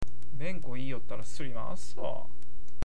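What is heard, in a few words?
"surimawasu", Awaji dialect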